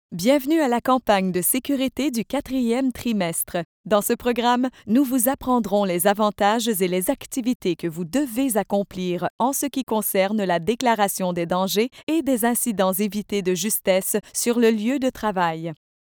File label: E Learning; SGS Canada